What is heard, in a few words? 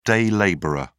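"day labourer", English, British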